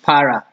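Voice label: "para", Nigerian Pidgin, male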